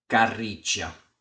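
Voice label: "Carrìcia", Sardinian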